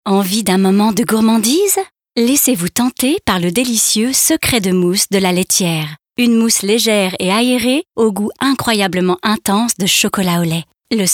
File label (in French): Pub Promo; LaLaitiere SecretDeMousse